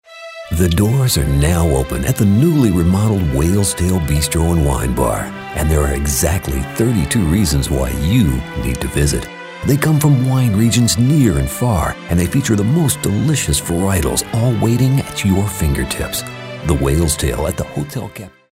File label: sophisticated